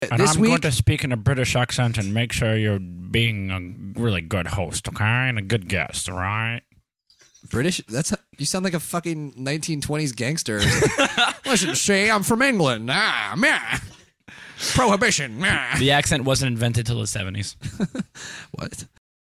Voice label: gangster accent